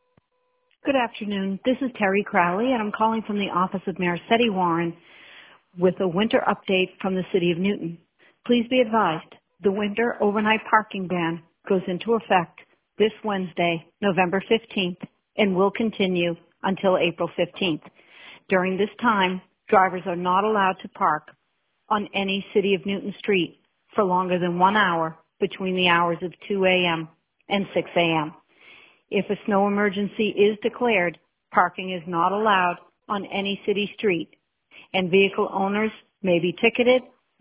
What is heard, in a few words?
voicemail